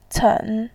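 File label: "cen", Mandarin Chinese, third tone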